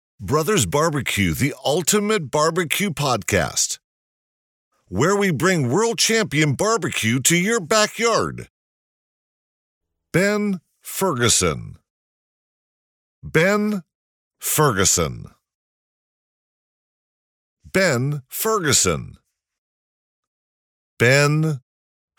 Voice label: 外籍男 烧烤广告

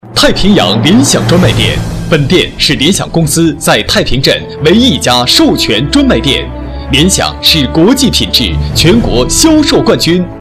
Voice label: 男35 电脑代理（激情）; 实惠年轻; 联想电脑代理

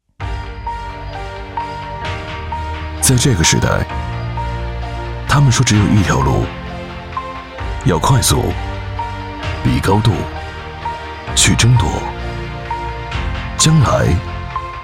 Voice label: 男 中荷环保（深沉大气 ）

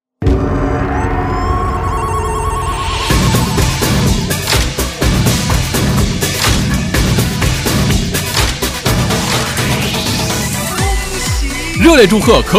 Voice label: 男 激情; 餐饮开业

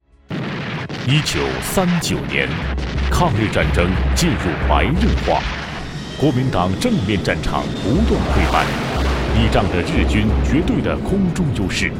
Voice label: 男 纪录片 抗日（历史类）

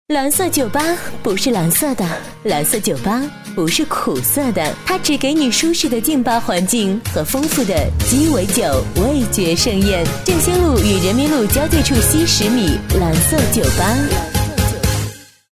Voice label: 女 餐饮酒吧, 广告配音

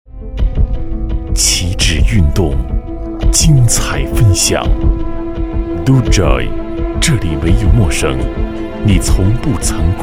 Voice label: 男 动向健身（高端品质感神秘）

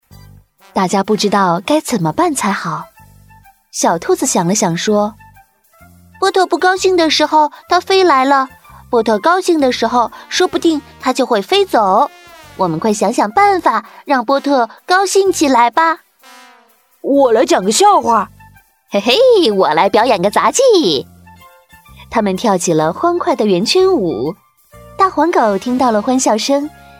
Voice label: 女 绘本故事; 课件; 亲和

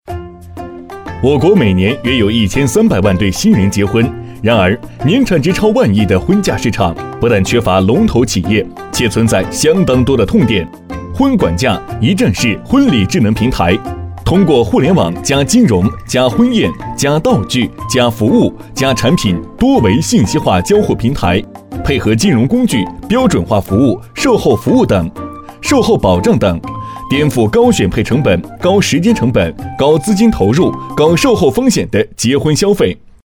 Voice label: 男 婚礼智能平台（轻松）

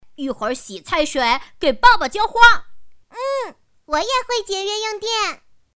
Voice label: 女 男童女童

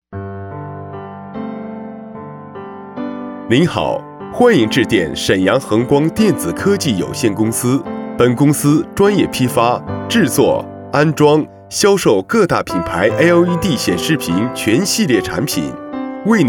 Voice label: 男 彩铃 厚重磁性彩铃混音

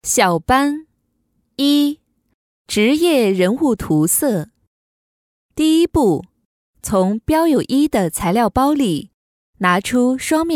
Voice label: 女55 【课件解说】儿童教学

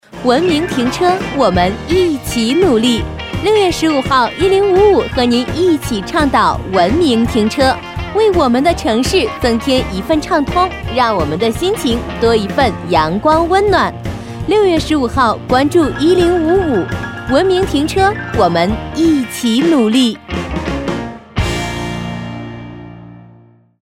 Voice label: 女 文明停车

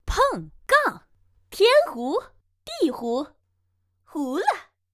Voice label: 女; 麻将

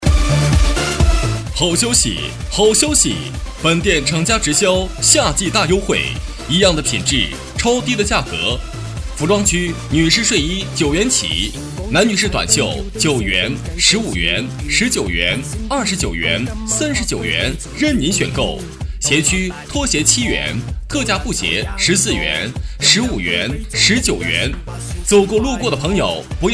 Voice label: 男; 鞋服商场好消息; 行业：服装鞋袜 分类：促销叫卖 风格：激情

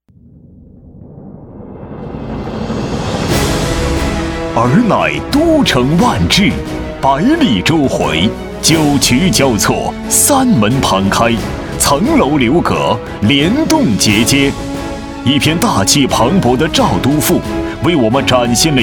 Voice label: 男56 邯郸（大气）

